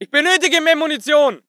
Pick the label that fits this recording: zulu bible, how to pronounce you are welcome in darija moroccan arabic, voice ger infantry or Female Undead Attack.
voice ger infantry